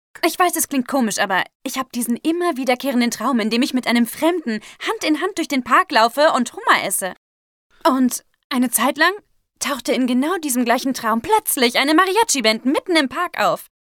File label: dubbing, german, female